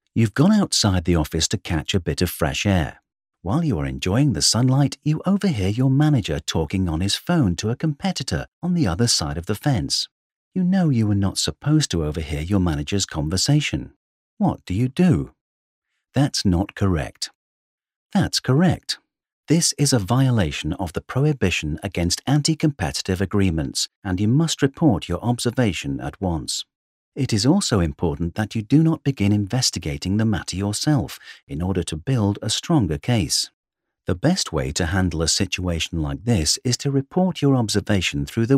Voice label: British Voiceover eLearning; DANSKE BANK; Competition